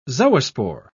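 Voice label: "zoospore", British English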